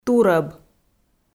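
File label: "турӧб", Komi, female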